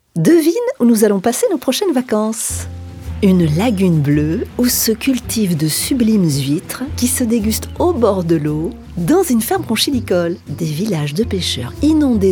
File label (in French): spot radio; archipel de thau